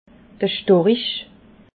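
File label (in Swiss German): "storich", Alsatian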